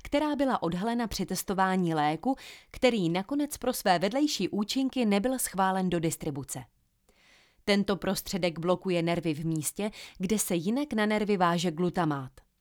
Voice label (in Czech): čte úryvek z knihy; Střevo není tabu